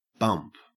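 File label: "bump", Australian English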